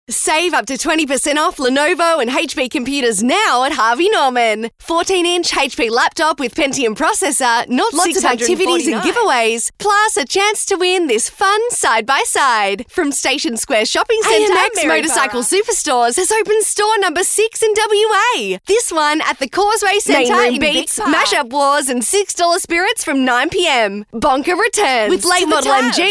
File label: Hard Sell